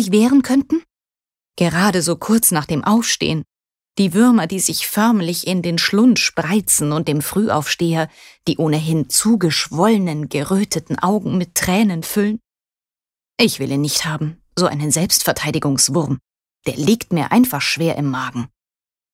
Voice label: Der Fruehe Vogel Witzig

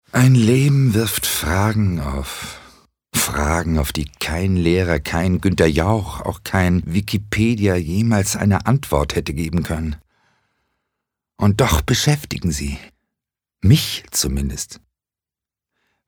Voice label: Schauspiel Neutral

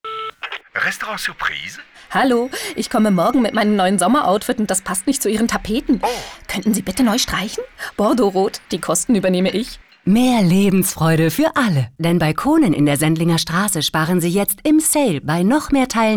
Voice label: Fremdtake Freundlich

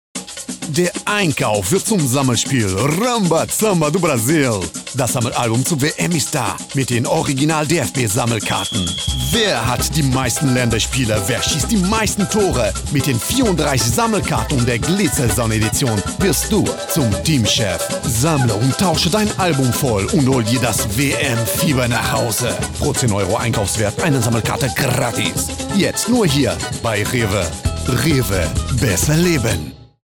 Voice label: Akzent Portugiesisch

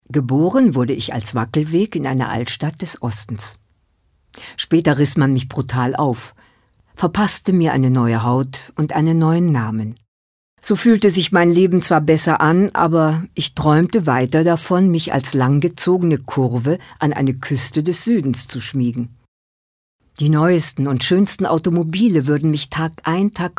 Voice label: Schauspiel Neutral

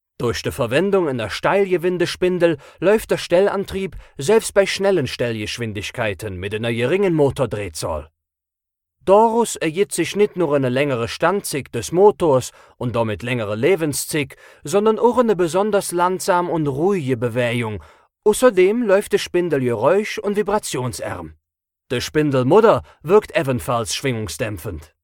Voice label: Dialekt Koelsch

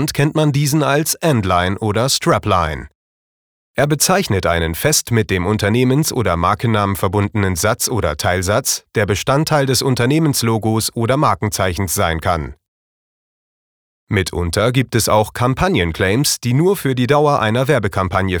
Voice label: Claim Neutral

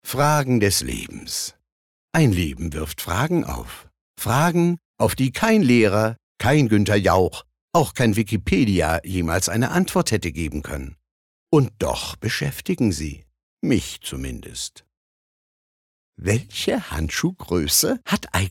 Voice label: Schauspiel Energisch

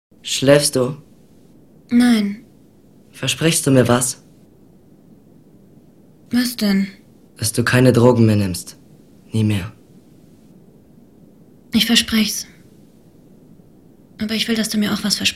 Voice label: Schauspiel, Einfuehlsam

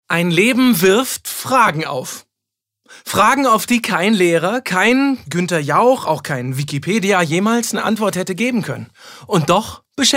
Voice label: Schauspiel Dynamisch

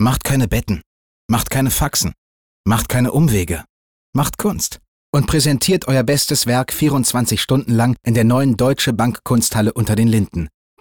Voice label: Neutral

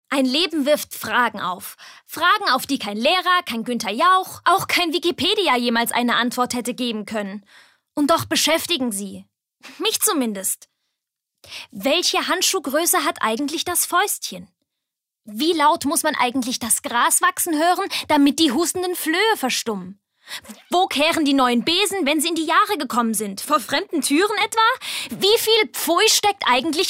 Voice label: Schauspiel Dynamisch

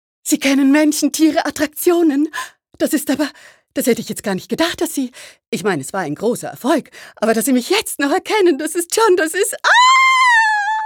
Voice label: Schauspiel Dynamisch

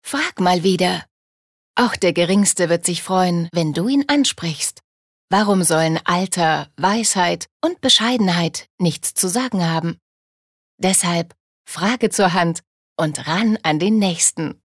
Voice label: Werbung Sanft

